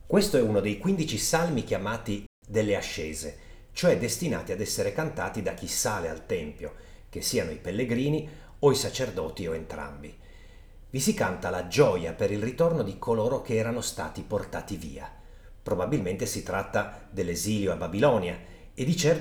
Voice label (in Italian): Salmo introduzione e lettura; salmi 121 135